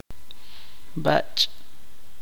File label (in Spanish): derecho, chuj